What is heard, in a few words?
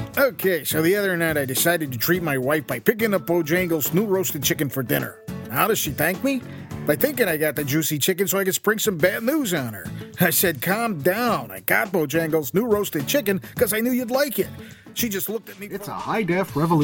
professional voice actor voices